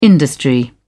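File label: "industry", British English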